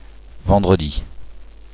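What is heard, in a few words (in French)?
"vendredi", French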